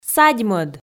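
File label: "садьмӧд", Komi, female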